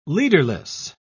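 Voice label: "leaderless", English, British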